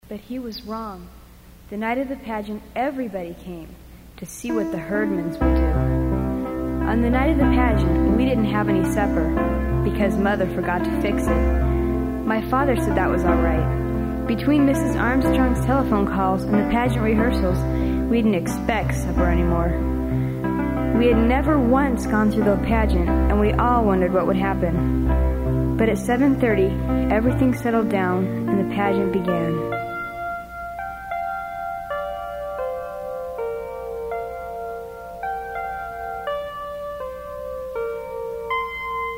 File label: Narration; A Family for Christmas